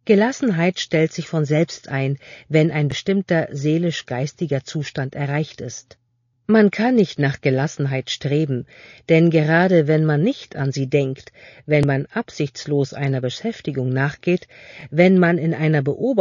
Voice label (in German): Hoerbuch Hoerbeispiel; Wege zur Gelassenheit